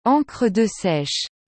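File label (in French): "encre de seiche", French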